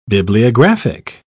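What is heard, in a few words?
"bibliographic", British English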